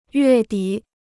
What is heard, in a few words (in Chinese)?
"月底", Chinese